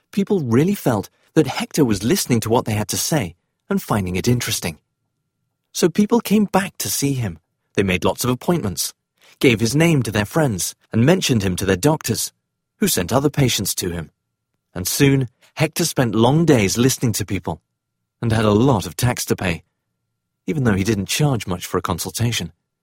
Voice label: Male Voice Over Talents Audiobook Fiction British

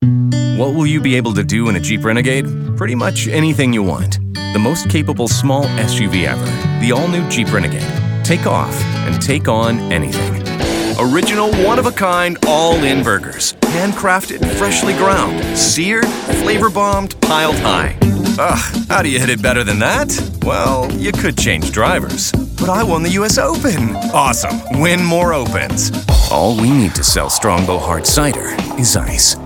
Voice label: Commercial, Male Voice Over

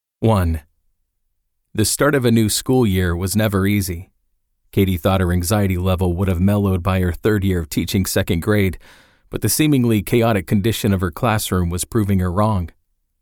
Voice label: Male Voice Over Audiobook; Botherhood By Fire

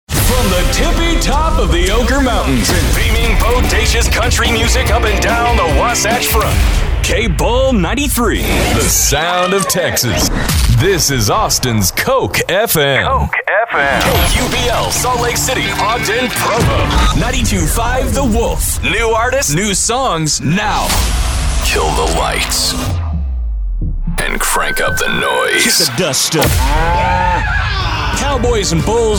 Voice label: Male Voice Over Radio Imaging

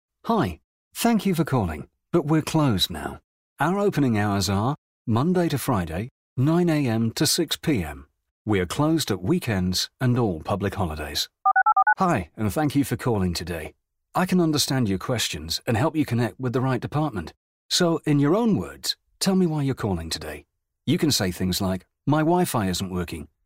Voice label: Male Voice Over IVR Telephony

